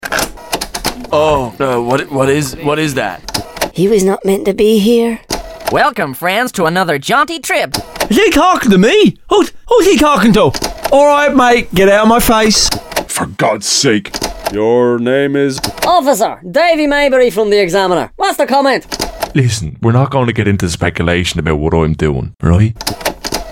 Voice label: english irish cartoon animation